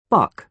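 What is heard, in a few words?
"buck", British English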